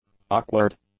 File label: "awkward", English; adjectives